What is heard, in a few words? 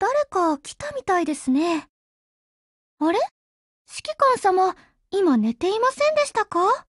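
BUILDOVER, Japanese; GF Alarm; CAR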